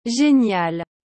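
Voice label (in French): "genial", French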